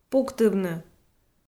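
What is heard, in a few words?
"пуктывны", Komi, female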